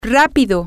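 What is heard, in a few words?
fast quick, spanish, female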